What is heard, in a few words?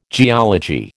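"geology", American English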